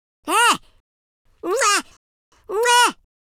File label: happy, Teenage Angst; speech